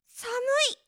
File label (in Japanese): 「寒い」, 驚く少女